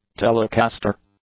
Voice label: "telecaster", english